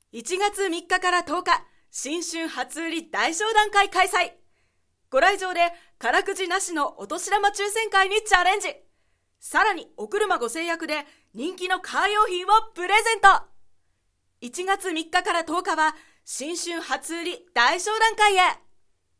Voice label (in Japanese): アニメの男の子風